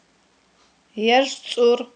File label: "яржцIур", aghul